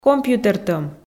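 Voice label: "компьютертӧм", Komi, female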